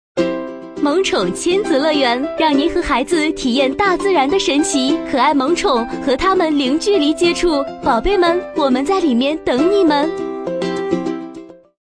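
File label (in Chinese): 女促销活泼; 萌宠亲子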